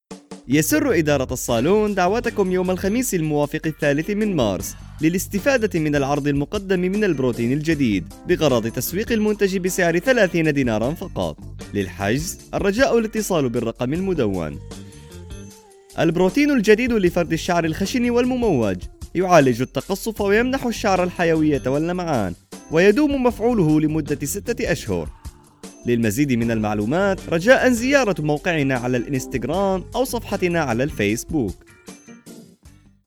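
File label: Arabic male Commercial; voice over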